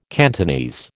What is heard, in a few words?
"Cantonese", American